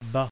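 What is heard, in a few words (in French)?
"bas" French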